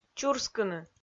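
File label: "чурскыны", Komi-Zyrian, female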